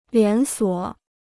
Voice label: "连锁", Chinese